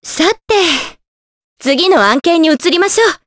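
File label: vox dialogue, voices, Japanese; Veronica; kingsraid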